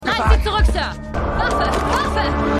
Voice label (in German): Polizistin; Madam Secretary